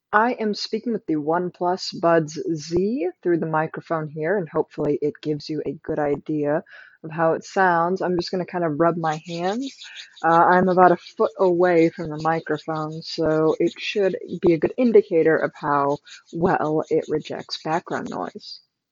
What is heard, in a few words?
OnePlus Buds Z microphone demo